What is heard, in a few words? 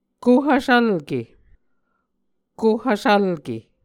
"kohvsvlke", Muscogee